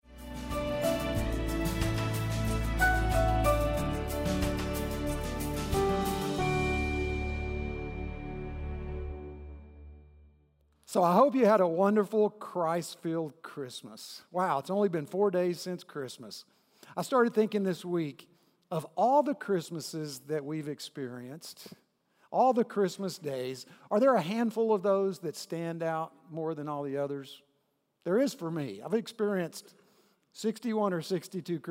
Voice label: Sermon English